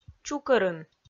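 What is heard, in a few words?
"чукӧрын", Komi, female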